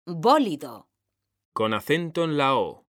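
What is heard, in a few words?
"BÓLIDO", Spanish, female; mekanta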